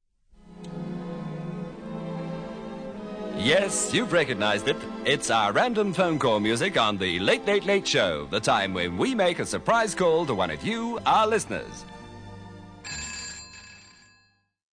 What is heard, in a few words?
phone intro